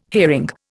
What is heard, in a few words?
"hearing", Indian